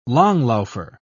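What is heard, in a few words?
"langlaufer", British English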